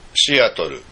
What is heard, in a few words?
"Seattle", airport code, usa